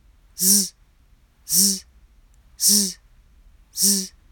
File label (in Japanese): 子音Z スタッカート